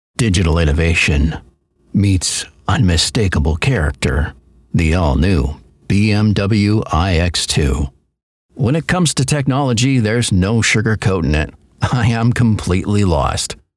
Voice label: Dry

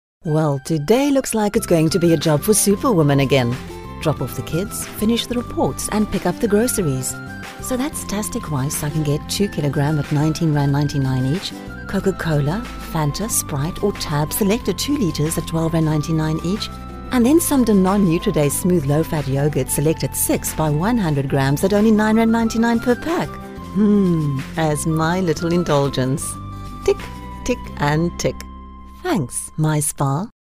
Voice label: Radio Commercial English

